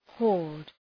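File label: "horde", English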